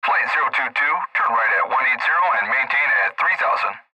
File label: ATC Voice Clip, Air Traffic Control Male Flight 022 Turn Right At 180 and Maintain At 3,000; Radio; Transportation Aircraft; Pa Announcement